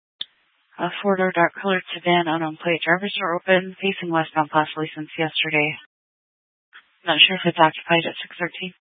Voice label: Phx PD Dispatch, scanner

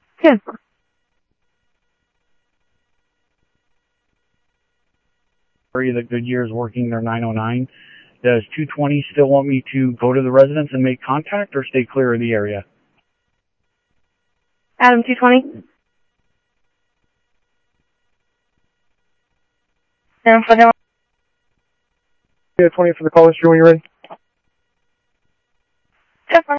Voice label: Sheriff Central, scanner audio trunk

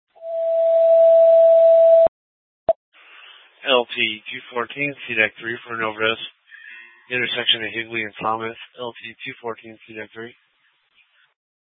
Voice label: FD Dpts VHF 156.240 Patch

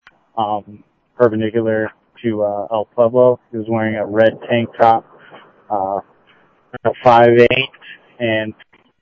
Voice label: South Scottsdale Sheriff, scanner audio

